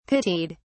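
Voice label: "pitied", British English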